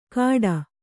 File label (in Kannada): "ಕಾಡ", Kannada